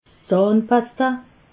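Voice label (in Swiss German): "zahnpasta", Alsatian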